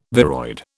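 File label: "viroid", British English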